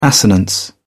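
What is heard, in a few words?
"assonance", British English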